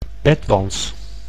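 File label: "bedwants", Dutch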